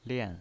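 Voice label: "lian", pinyin, Mandarin Chinese, fourth tone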